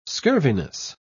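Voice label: "scurviness", English, British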